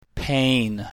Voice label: "pain", english

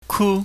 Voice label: "ku", chinese

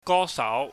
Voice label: "gosau", Cantonese

